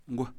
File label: "head", Kham